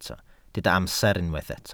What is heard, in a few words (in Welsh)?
"amser", Welsh